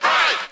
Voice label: "right" distorted

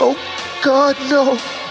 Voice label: "oh god no", male; Voice

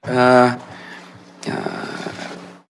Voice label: "uh uh", confused; ooh ahh; dubya

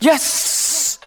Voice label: "yes", long sss

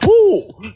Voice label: "poo", voice; ooh ahh

